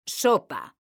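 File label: "sopa", Spanish, female; mekanta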